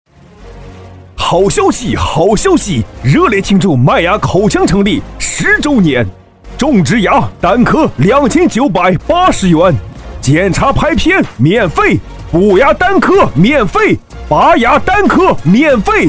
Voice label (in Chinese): 促销 大气激情热烈; 庆祝麦芽口腔成立周年成品